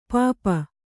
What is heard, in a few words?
"ಪೆಪ್ಪು", Kannada